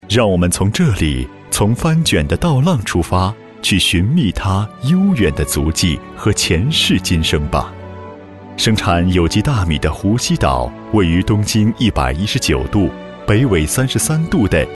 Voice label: mandarin narration